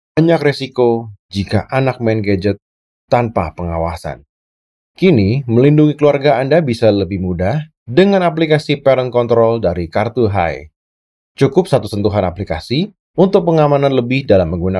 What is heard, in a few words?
CASUAL INDONESIA